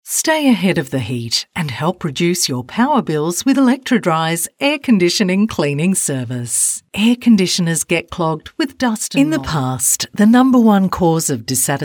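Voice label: Soft Sell, voice-overs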